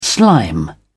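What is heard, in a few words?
"slime", British English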